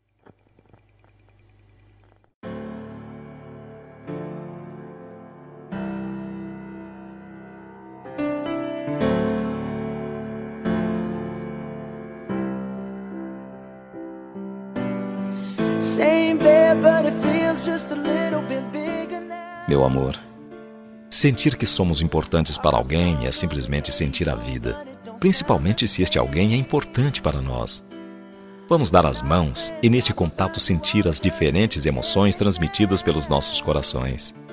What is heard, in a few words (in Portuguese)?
NATAL ROMANTICO MASC, Telemensagem de Natal